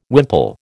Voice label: "wimple", American

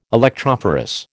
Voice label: "electrophorus", American

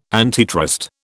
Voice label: "antitrust", British

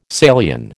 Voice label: "Salian", American

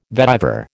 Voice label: "vetiver", American

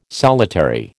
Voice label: "solitary", American

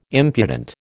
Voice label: "impudent", American

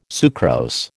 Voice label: "sucrose", American

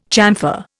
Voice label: "chamfer", Indian

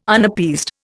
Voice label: "Unappeased", Indian

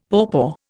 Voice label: "pawpaw", Indian; Dictionary

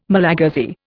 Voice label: "Malagasy", Indian

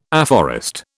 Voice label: "afforest", British

